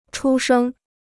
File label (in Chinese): "出生", Chinese